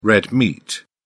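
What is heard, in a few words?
"red meat", English, British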